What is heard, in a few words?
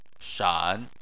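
"shan" tone 3, Chinese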